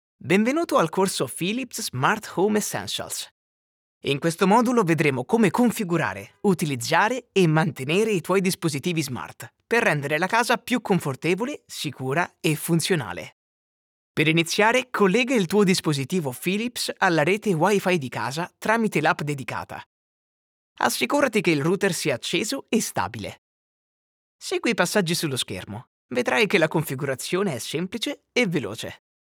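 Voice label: Italian male voice over, narrative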